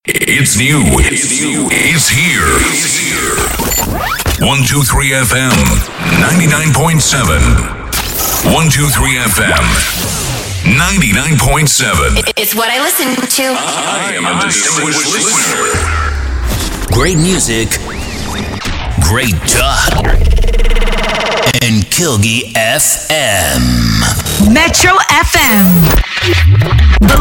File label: STATION LINERS